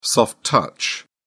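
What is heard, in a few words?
"soft touch", British English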